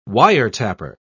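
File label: "wiretapper", English, British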